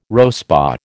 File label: "RoSPA", American